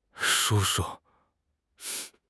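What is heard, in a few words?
"shu1 shu5", Chinese; HSK3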